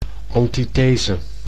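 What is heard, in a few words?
"antithese", Dutch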